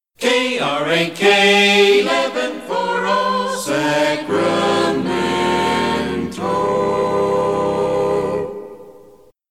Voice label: crack radio acapella; KRAK PAMS